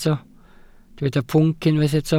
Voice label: "pwnc", Welsh

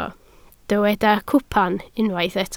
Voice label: "cwpan", Welsh

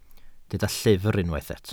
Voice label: "llyfr" Welsh

